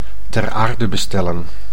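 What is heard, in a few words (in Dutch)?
"ter aarde bestellen", Dutch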